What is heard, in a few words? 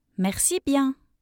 "merci bien", French; thank you